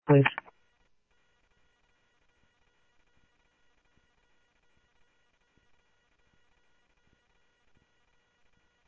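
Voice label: Sheriff East County, scanner audio trunk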